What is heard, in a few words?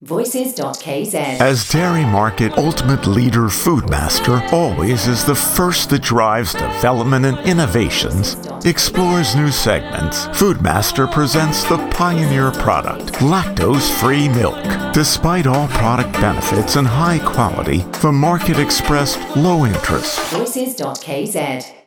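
English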